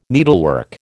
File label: "needlework", American